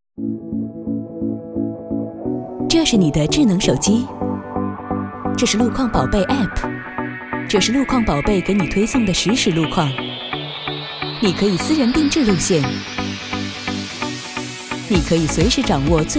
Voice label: 广告 互联网 路况宝贝 活力

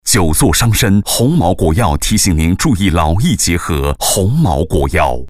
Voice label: 男 多媒体 广播提示; 鸿茅国药提醒您

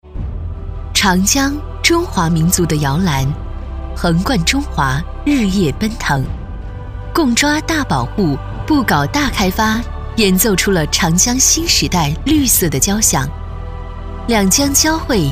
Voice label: 女国 专题 政府 重庆 大气

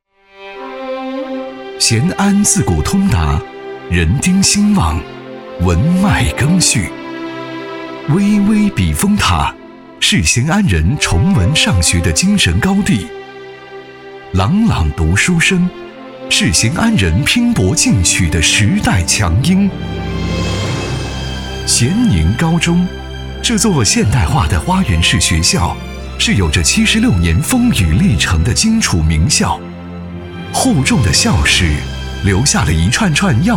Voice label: 男国 专题 学校 稳重; 咸宁高中

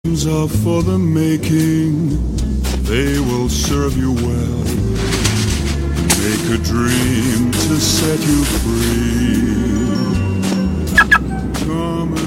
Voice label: 广告 汽车; 比亚迪唐大雪过后篇

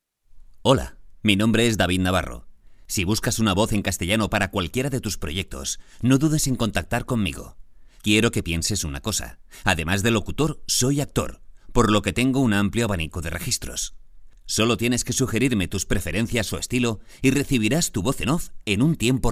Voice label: 西班牙语男 外语 小语种

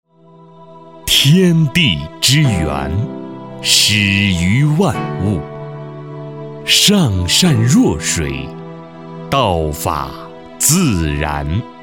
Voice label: 专题, 企业, 药业, 舒缓; 承德药业

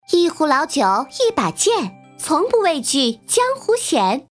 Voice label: 动画片 正义女孩

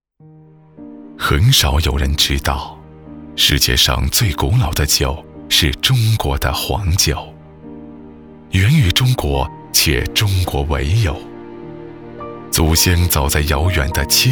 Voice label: 男国 专题 产品 讲述; 黄酒

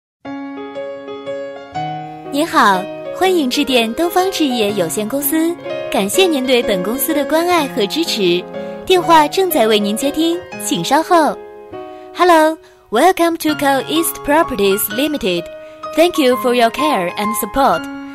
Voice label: 彩铃 中英文彩铃

